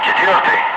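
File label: Russian bright; Ka-50; Wingman; DCS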